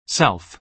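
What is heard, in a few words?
"self", American English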